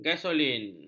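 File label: "gasolin", Malay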